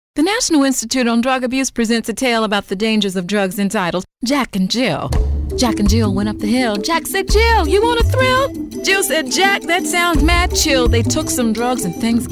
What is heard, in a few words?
female announce